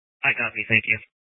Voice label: Police Dispatch

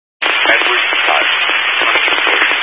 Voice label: Highway Patrol, scanner; DPS EAST; Dist 06 Casa Grande

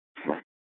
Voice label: Police Department Dispatch; Apache Junction; Mesa Simulcast

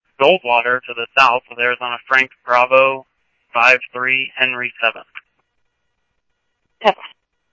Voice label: Police, scanner audio trunk

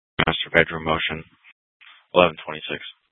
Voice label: Dispatch; Scottsdale

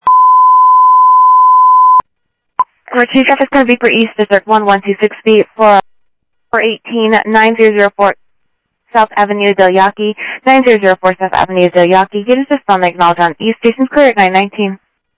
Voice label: Info Sheriff - Common, scanner audio; MCSO; South Scottsdale

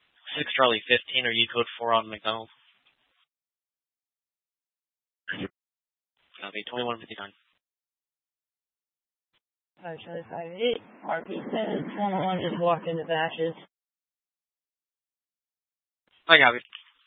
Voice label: Mesa PD Dispatch

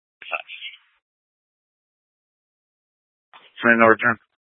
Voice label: Scottsdale Police, Simulcast

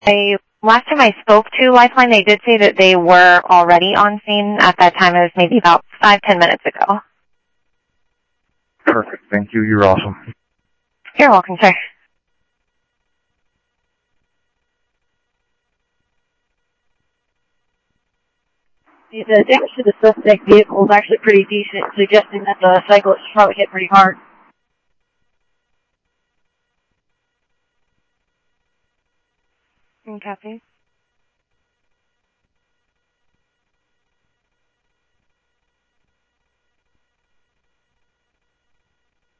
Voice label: Info Sheriff Common, scanner audio trunk

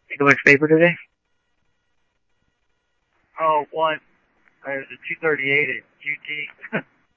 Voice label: scanner audio; Thompson Peak Hi; OCEAN 1

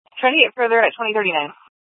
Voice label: Police South Dispatch; Scottsdale Police

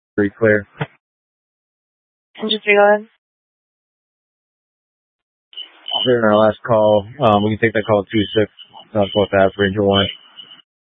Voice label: Fire Dispatch; K8 - FDCOM 8 - South; C- Chandler

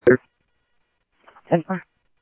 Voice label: Interop Mutual Aid, scanner; G1 Interop MutualAid-InterS; ThompsonPeakHigh

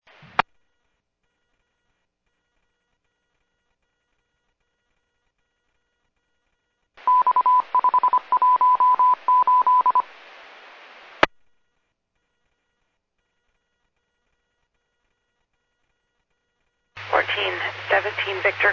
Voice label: Medevac, scanner; NativeAirAmb

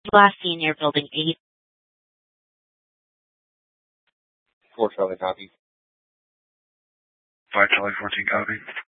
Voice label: Mesa PD Fiesta Dispatch; TOPAZ Regional Wireless Cooperative (TRWC) - Mesa Simulcast

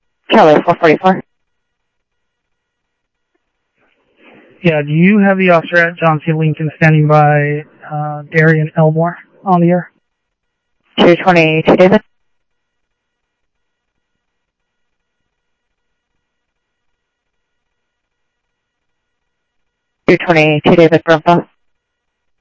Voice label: Phoenix PD, scanner audio

